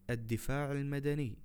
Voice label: fire station, arabic words audio